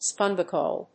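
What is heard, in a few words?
"spongocoel", English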